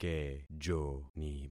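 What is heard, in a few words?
"kejoni", concatenated syllables; Affixing experiment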